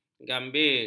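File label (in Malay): "gambir", Malay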